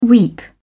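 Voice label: "weep", British English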